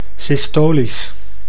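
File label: "systolisch", Dutch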